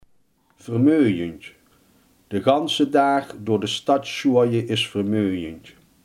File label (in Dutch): "vermoeiend", limburgse